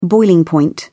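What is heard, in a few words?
"boiling point", British English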